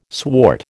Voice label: "swart", American

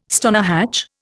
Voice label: "stonehatch", Indian

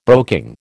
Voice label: "broking", American

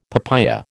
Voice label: "papaya", American

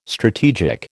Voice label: "strategic", American

